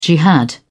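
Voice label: "jihad", British English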